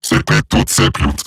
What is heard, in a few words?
Patrol Robot Large, Barks, Battle